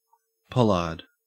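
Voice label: "pollard", Australian English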